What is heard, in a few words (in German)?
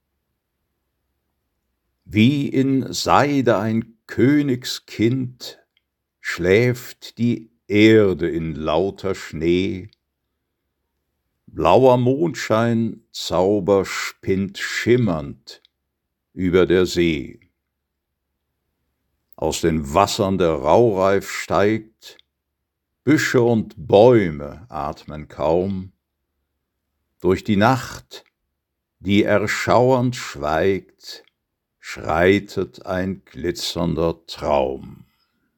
Gedicht Eisnacht Sprecher; KEB